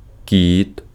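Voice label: Ukrainian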